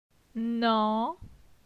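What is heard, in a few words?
"Nan", French; phonetique; la syllabe an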